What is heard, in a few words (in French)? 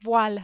"voile", French